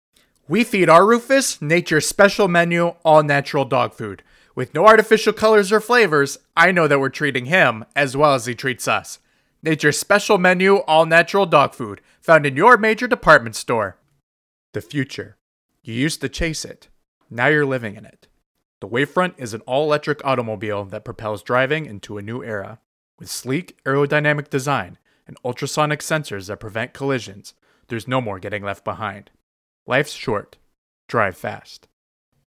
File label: Commercial